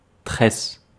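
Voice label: "tresse", French; Mots francais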